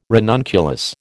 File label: "ranunculus", American